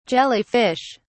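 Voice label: "jelly fish", British English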